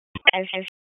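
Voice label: Police Northeast District Dispatch; Raleigh; wake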